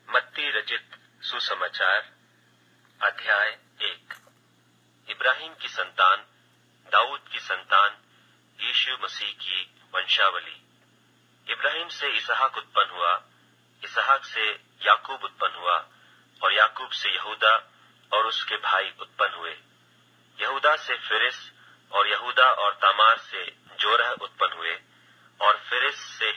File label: Hindi Bible